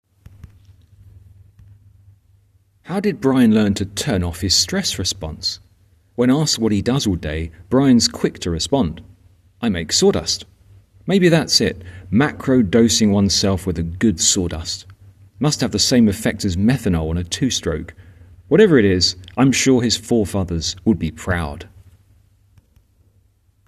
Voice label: voiceover